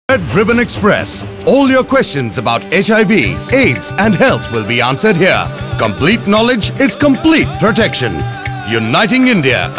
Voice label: Spot English